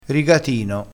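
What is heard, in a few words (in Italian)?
"rigatino", dialetto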